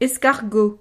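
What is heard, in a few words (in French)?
"escargot", French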